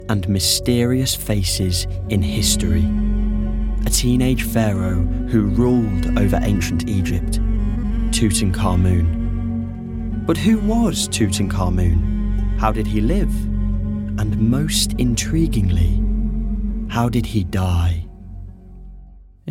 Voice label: Narration; home studios

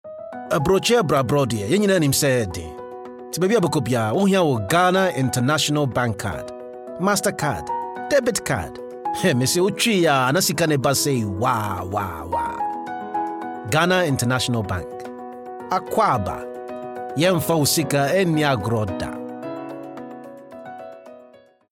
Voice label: Twi; Twi, Male, 20s-40s

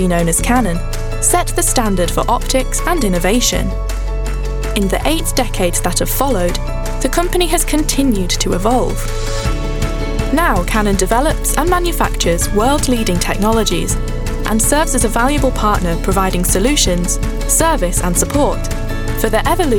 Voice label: Corporate; regional accents

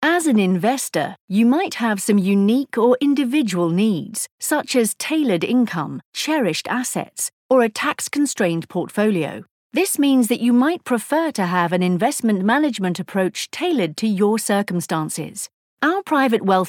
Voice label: Corporate; home studios

